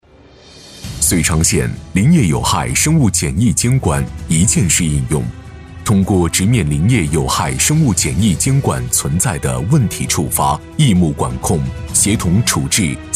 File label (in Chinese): 男 宣传片; 林业有害生物检疫监管